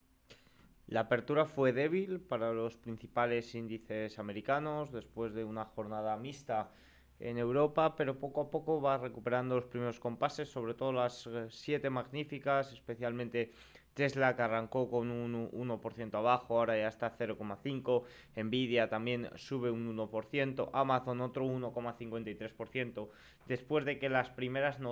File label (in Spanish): radio; noticias bolsa; apertura debil en estados unidos